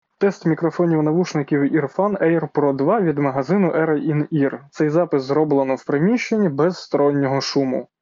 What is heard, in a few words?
Earfun Air Pro 2 Indoor